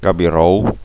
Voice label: "cabirou", gasconha

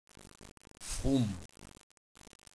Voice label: "font", Gascon

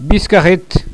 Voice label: "biscarret", gasconha

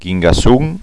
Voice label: "guingasson", gasconha

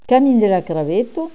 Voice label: "camin crabeta", gasconha

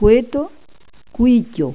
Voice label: "coeta", Gascon

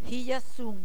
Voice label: "hilhasson", gasconha

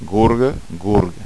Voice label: "gorg", gasconha

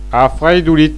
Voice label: "afreidolit", gasconha

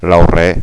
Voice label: "laurer", gasconha

